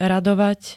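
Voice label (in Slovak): "radovať", Slovak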